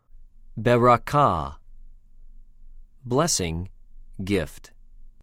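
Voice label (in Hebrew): "בְּרָכָה", Hebrew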